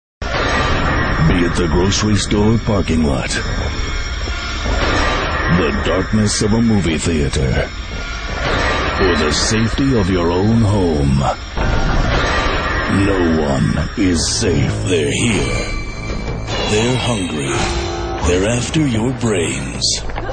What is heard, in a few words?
vo trailer